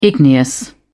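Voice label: "igneous", English, British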